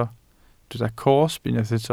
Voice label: "cosb", welsh

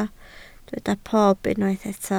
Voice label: "pob", Welsh

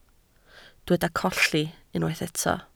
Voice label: "colli", Welsh

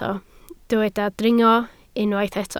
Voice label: "dringo", Welsh

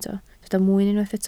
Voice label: "mwyn", Welsh

